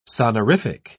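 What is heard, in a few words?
"sonorific", British English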